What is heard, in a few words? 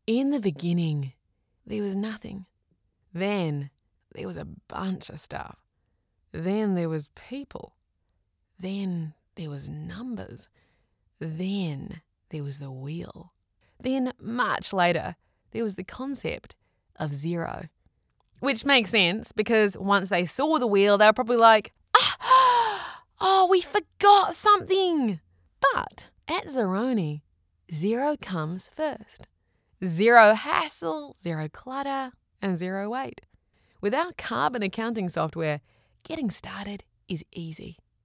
english australian documentaries